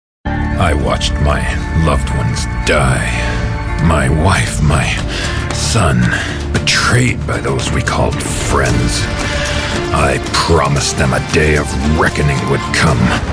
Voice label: english canadian video games